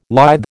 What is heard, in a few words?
"lithe", American